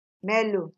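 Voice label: "mellow", Nigerian Pidgin, female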